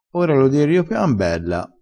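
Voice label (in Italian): "riopia", vocabolario dialettale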